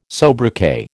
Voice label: "sobriquet", American